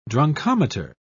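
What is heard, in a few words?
"drunkometer", British English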